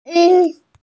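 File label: "sheng yin", Mandarin Chinese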